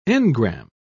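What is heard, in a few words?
"engram", British English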